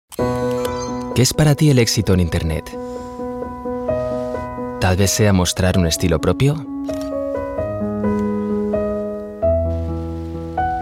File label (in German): ESP Werbung Neutral